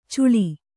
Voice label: "ಚುಳಿ", Kannada

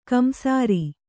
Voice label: "ಕಿಂಸಾರು", kannada

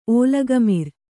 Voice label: "ಓಲಗಮಿರ್", Kannada

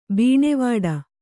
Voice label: "ಬನಾವಟಿ", Kannada

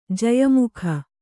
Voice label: "ಜಯಮುಖ", Kannada

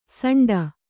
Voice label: "ಸಂಡ", Kannada

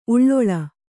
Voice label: "ಉಳ್ಳೊಳ", Kannada

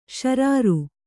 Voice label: "ಶಾರೀರ", Kannada

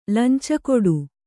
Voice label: "ಲಂಚಕೊಡು", kannada

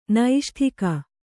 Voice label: "ನೈಷ್ಠಿಕ", Kannada

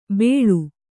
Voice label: "ಬೆಳು", Kannada

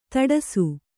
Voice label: "ಧಾಡಸೀ", Kannada

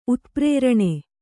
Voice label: "ಉತ್ಪ್ರೇರಣೆ", kannada

